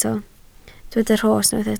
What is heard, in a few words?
"rhos", Welsh